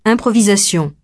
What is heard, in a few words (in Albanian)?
"improvisation", frengjisht